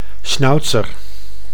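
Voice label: "schnauzer", Dutch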